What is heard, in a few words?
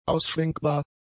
"ausschwenkbar", German; adjectives